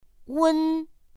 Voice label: "un", pinyin; pinyin2; pinyinji